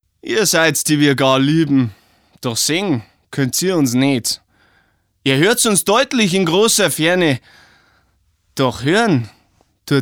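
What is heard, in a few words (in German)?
bayrisch und fränkisch, Sprecher, Männer; bayr+fraenk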